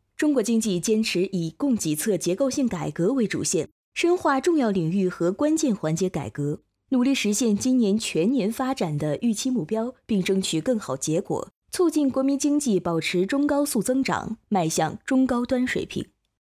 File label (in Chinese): 新闻类